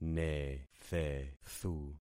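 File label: "nethethu", concatenated syllables; Affixing experiment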